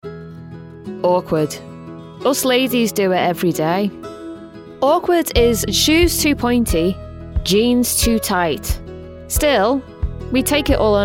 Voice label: Ad Smear Test

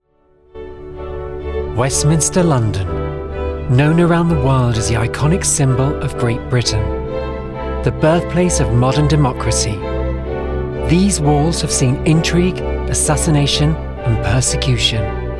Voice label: narrative

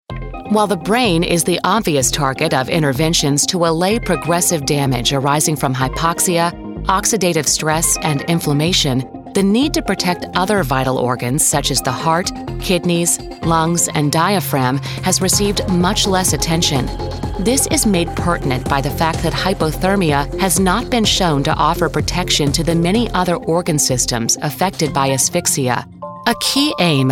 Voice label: medical narration

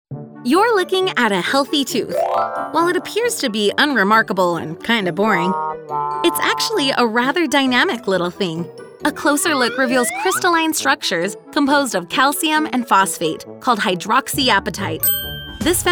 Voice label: narration

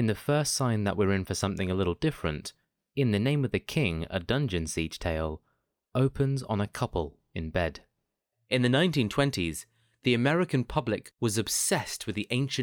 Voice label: Narrative

